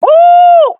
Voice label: round winning, swat fem; Counter Strike Model Pack; CS:GO